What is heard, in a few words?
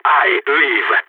last man standing, balkan; vo